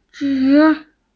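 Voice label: "zhi3", Mandarin Chinese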